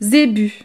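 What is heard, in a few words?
"zebu", French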